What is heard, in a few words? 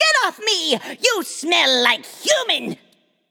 taunt directed; cupid; hercules